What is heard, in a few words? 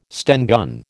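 "Sten gun", American English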